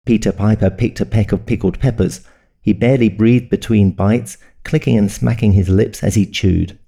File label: audio with processing